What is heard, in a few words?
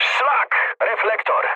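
searchlite, mood low, Polish speech; War Thunder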